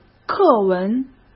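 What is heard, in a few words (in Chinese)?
"课文", Mandarin Chinese; chinese-flashcards; hsk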